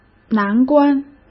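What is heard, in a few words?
"难关", Mandarin Chinese; chinese-flashcards; hsk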